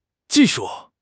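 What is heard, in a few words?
"ji4 shu4", Mandarin Chinese; HSK; HSK4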